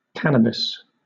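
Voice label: "cannabis" English, British accent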